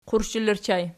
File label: "qurshela", Archi